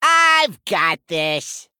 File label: Male Gnome